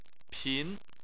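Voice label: "pin" second tone, Chinese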